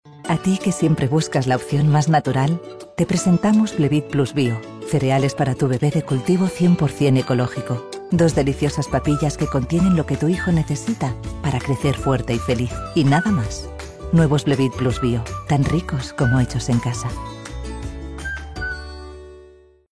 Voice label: female spanish comercial; ideasonora